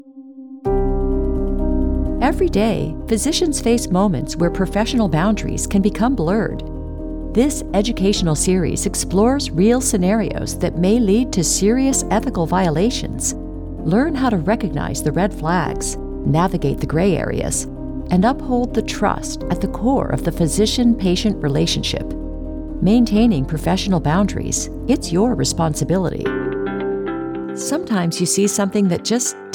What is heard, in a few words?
Narration